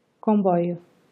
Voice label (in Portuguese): comboio, portugal